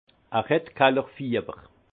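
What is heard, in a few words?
"kallerfiewer", Haut Rhin; vin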